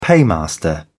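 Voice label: "paymaster", British English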